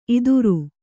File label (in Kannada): "ಇತ್ತೆರ", Kannada